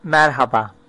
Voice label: hello, turkish